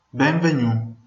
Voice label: welcome, venetian

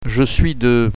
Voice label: I'm from, French

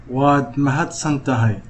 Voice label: thanks, somali

